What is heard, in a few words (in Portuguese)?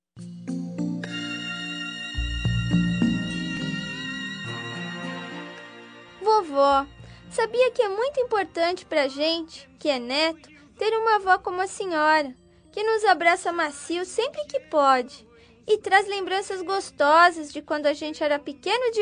infantil avo masc, Aniversário Voz Infantil – Avó – Voz Masculina